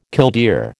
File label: "killdeer", American